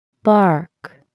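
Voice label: "bark", English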